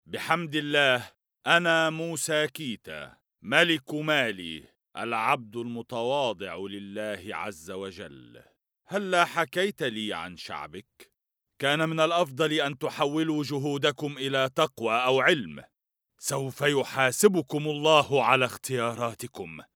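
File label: arabic male narration; voice demos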